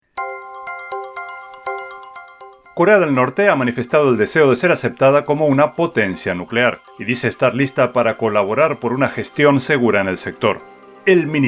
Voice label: news